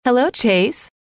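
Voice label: hello, female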